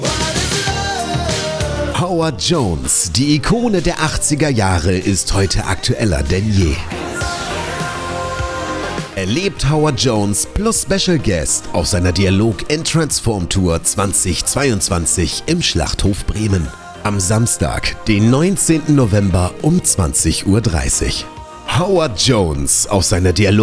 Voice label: Radio Spot